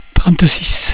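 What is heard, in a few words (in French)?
"trente six", French; cat numeration doigts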